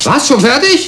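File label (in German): "fertig", German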